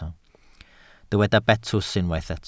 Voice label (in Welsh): "betws", Welsh